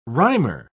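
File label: "rhymer", British English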